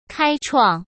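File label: "开创", Mandarin Chinese; hsk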